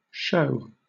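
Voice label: "shew", British English; pronunciations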